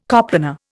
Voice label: "coplanar", Indian

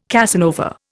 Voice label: "Casanova", Indian

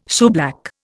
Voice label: "shoeblack", Indian

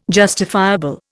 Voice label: "justifiable", Indian

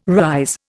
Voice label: "rise", Indian

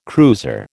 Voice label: "cruiser", American

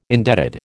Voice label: "indebted", American

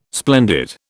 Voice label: "splendid", British

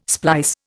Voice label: "splice", Indian

